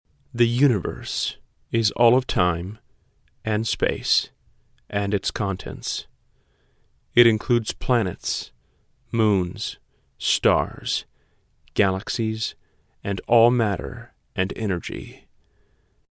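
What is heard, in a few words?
US English, male, narration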